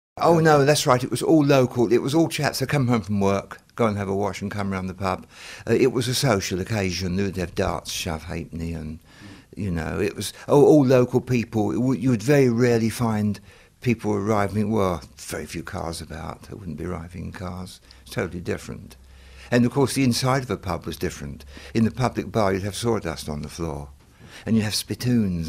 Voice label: bbc, accents sbe; mill; meopham